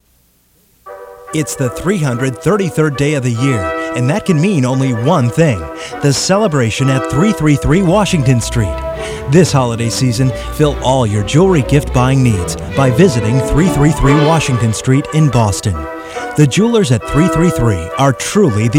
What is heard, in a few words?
Radio Spot; CoronetJewelersRadioSpot